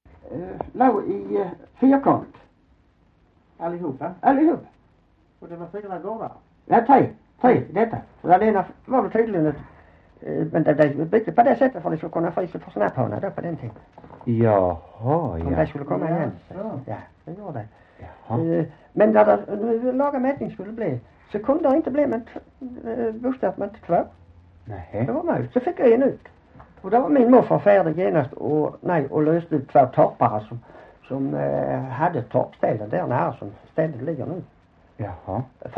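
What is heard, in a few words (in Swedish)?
Hörja Skåne, dialekter; Folkminnen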